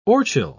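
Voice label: "orchil", English, British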